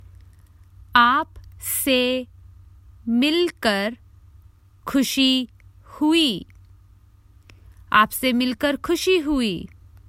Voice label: Its nice to meet you, Common Hindi Phrases for travel